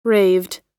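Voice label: "raved", US English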